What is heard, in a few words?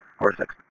Police Sheriff Dispatch